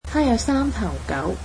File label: cantonese he has three dogs